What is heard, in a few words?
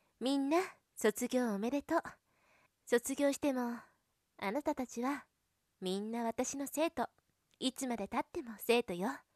low voice teacher